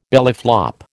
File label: "bellyflop", American